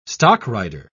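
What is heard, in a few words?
"stockrider", English, British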